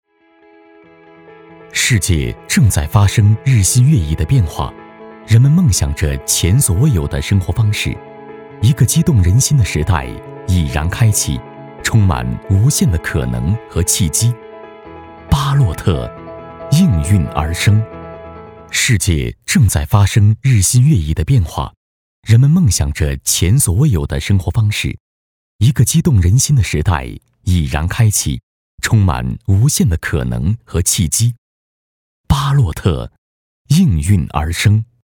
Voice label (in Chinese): 男 科技感; 优质年轻; 巴洛特